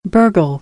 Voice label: "burgle", American; ɝː - ɜː